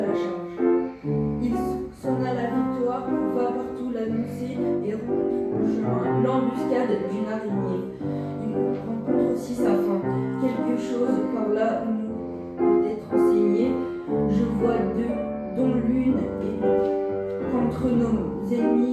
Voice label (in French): conte musique; Nuit de la lecture du 23 janvier 2025